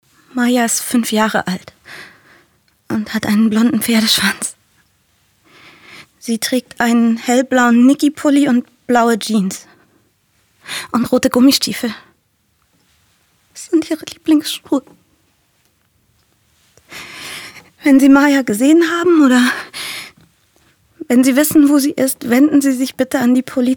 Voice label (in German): Rolle junge Mutter